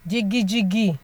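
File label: "jigijigi", Nigerian Pidgin, female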